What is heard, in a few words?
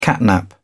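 "catnap", British English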